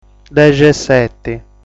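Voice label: "Dexeseti", Sardinian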